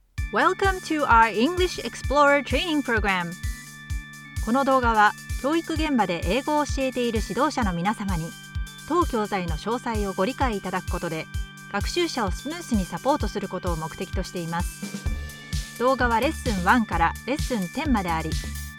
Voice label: japanese e-learning

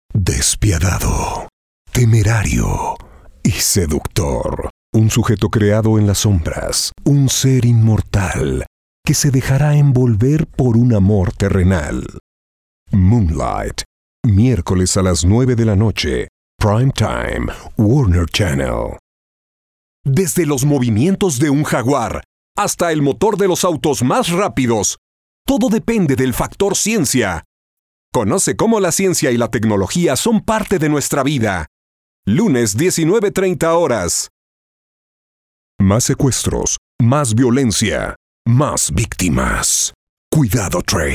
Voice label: spanish mexican promos

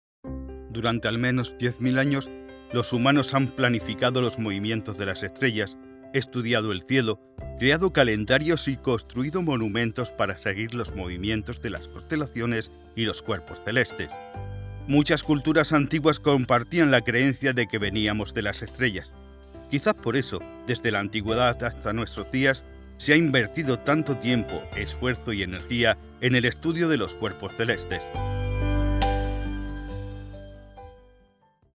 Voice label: spanish narration

